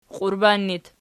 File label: "qiurban", Archi